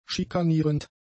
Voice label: "schikanierend", German; adjectives

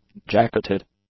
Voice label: "jacketed", english; adjectives

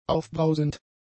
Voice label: "aufbrausend", German; adjectives

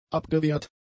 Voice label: "abgewehrt", German; adjectives

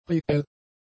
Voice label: "reell", German; adjectives

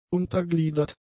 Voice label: "untergliedert", German; adjectives

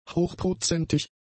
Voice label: "hochprozentig", German; adjectives

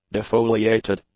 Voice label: "defoliated", english; adjectives

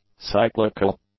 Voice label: "cyclical", English; adjectives

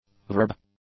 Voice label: "verb", English; nouns

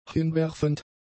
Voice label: "hinwerfend", German; adjectives

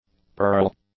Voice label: "peroral", english; adjectives

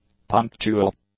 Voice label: "punctual", english; adjectives

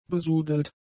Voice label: "besudelt", German; adjectives

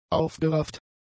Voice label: "aufgerafft", German; adjectives

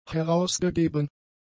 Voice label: "herausgegeben", German; adjectives

